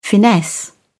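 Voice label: "finesse", British English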